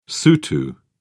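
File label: "sotho", British English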